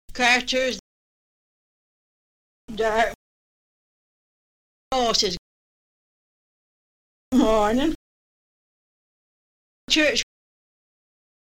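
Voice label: Village near Bolton, rhotic: carters, dark, horses, morning, church, shirt, were, there, fever, Manchester, never; birth 1882; rhoticity; accents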